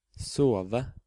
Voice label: "Sove", Norwegian